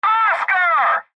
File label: Military Voice, Military Voice Clip Radio Middle Age Male; Oscar; Warfare; Military (Sound Effects:Voice); Blastwave